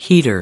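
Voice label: "heater", American English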